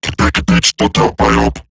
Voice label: Attack Robot Large; Battle; Eximus; Eximus Corpus Robotic Large; en

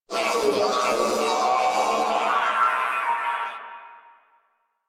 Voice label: Death Crewmen, Battle Barks; Dialog; Warframe; Corpus Crewmen; Crewman C

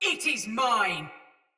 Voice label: Sight Lost Shout Soldier Mace Agent Female

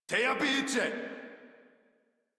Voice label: Reload Modular Corpus Male; Dialog; Barks; Battle; Warframe

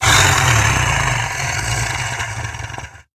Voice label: Idle Grineer Wolf, en; Battle Barks

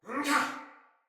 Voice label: Attack Soldier Male; Battle; Barks; Dialog; Sinecure Soldier Male A

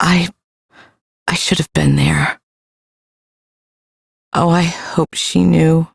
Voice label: Ambient Female, en; Duviri

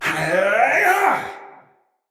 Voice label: Attack Heavy, Battle, Barks, Dialog; Mendicant Wazard

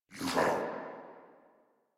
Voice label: Surprised, Battle Barks

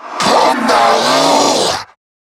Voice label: Attack, en; Zariman Wraith

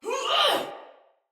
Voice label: Attack Heavy, Soldier Female; Sinecure Soldier Female A

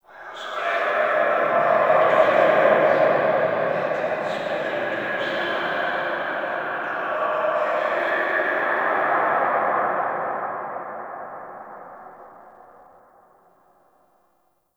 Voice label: Prayer Whisper; Lab; Warframe; Tau; Protoframe Room; Ambience

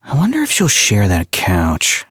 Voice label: Citizen Male, en; Citizens

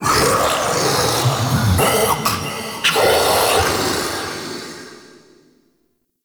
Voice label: Male Vocal Enemy Spotted Phrase; Vocals

